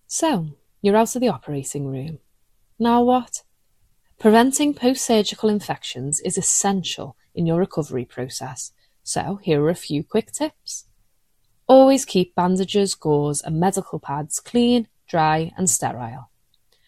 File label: Corporate, liverpool